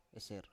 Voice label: "eser" Biak